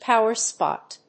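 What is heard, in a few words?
"power spot", English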